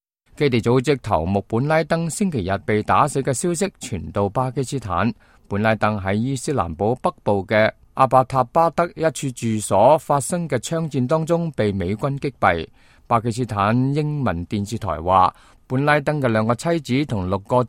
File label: cantonese, news